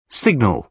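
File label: "signal", British English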